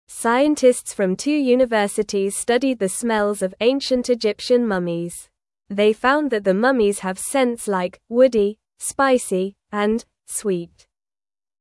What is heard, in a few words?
English Lower Intermediate NORMAL Reading; English Newsroom; Mummies Smell Like Trees and Spices from Egypt